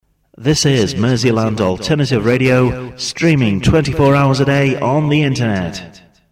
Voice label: Shoutcast, Voiceovers; MAR